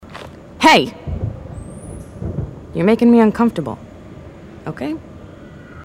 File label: female marines; h3dialogue